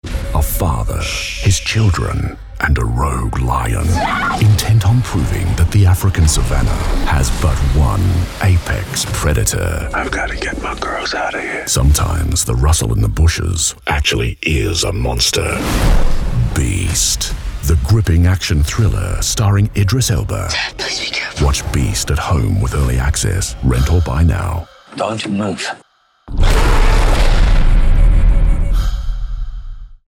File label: Movie Trailer; BEAST; Sony Pictures